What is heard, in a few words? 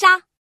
slash, female; FreeKill; standard cards